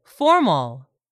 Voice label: "formal", English; 3000 words